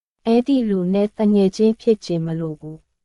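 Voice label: Myanmar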